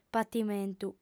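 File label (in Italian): "patimentu", lingua sarda, fem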